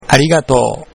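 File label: thanks, Japanese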